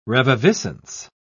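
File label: "reviviscence", British English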